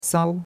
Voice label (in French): "sao1", chinois; Cours-de-Chinois